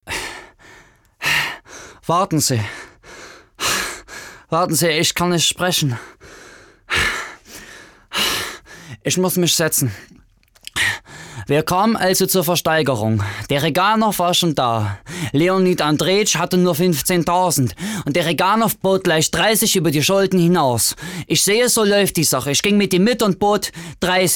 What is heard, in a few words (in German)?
dialekt thueringen